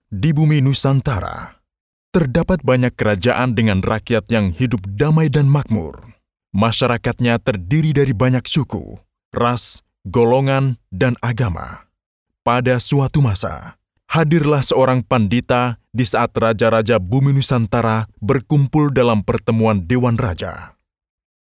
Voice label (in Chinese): 印尼语男-干音-沉稳